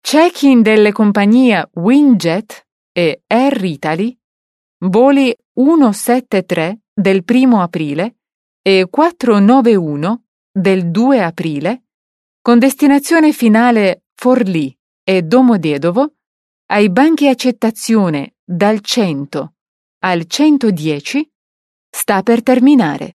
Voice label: italian female

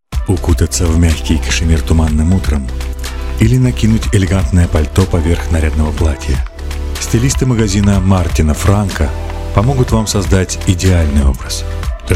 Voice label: RUS

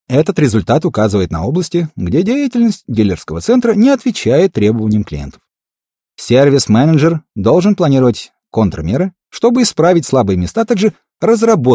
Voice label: rus

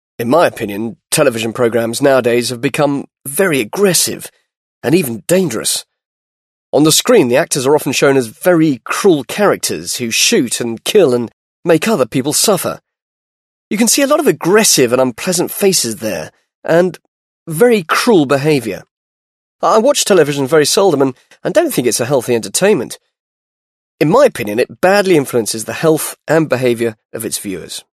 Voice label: british english male

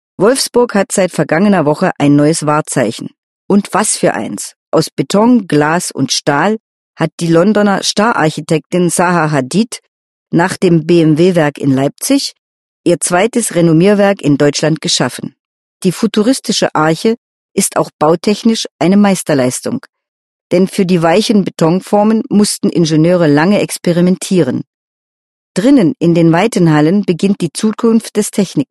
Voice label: german female

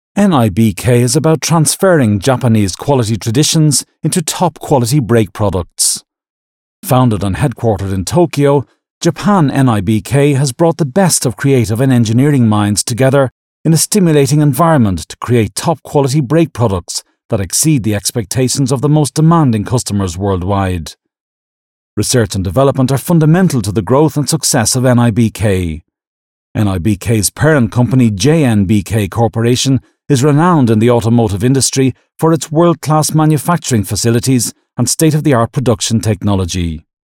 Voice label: british english male

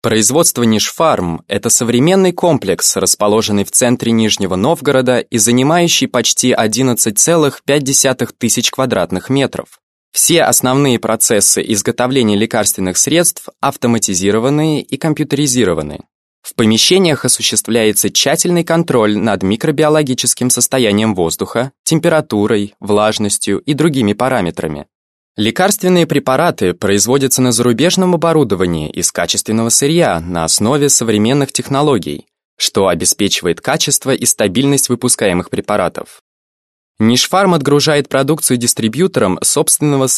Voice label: russian male

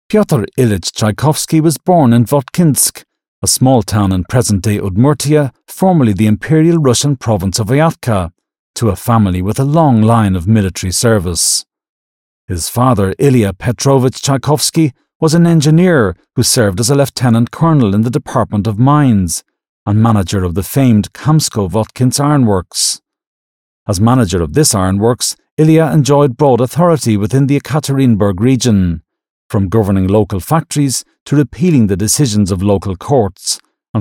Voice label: british english male

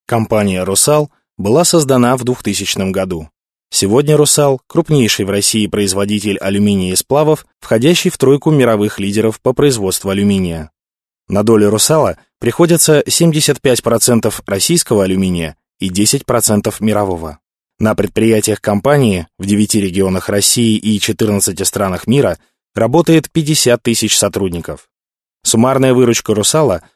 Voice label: russian male

